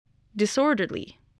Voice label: "disorderly", spelling